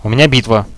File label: attacking, Russian; bot, radio